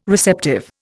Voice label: "receptive", Indian